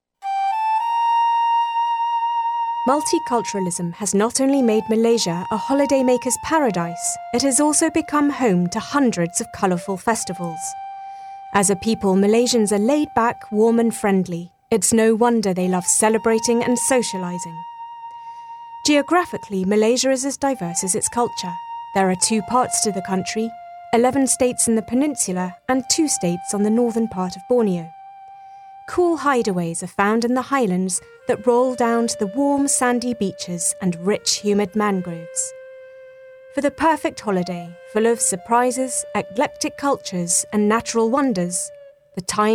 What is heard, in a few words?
travel promo